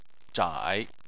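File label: "zhai", Mandarin Chinese, third tone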